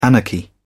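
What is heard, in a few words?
"anarchy", British English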